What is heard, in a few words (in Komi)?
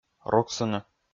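"руксыны", Komi, male; kpv audio dict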